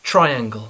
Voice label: "triangle", English; geometricke tvary